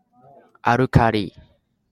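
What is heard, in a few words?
"alkali", Japanese, male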